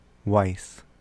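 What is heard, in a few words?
"wis", American English; pronunciations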